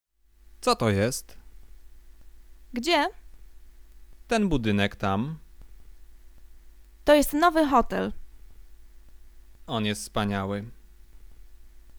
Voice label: conversation; lektorek; firstyear